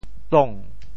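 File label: "tong2", Teochew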